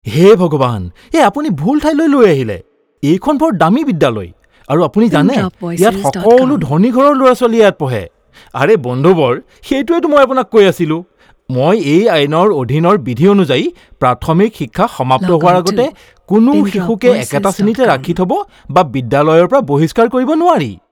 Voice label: Assamese